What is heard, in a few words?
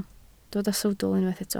"sawdl", Welsh